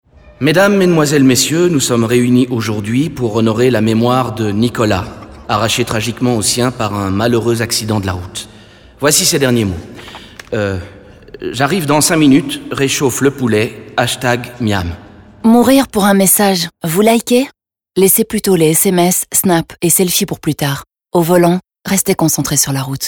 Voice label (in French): radio fr